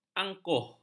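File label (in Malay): "angkuh", Malay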